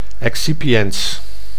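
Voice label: "excipiens", Dutch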